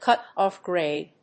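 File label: "Cutoff grade", English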